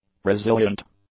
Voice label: "resilient", English; adjectives

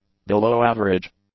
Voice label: "below-average", English; adjectives

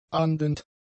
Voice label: "ahndend", german; adjectives

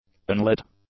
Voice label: "unlit", English; adjectives

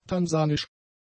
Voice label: "tansanisch", German; adjectives

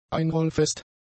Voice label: "einrollfest", German; adjectives

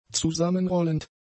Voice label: "zusammenrollend", German; adjectives

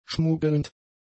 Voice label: "schmuggelnd", German; adjectives

